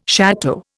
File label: "château", Indian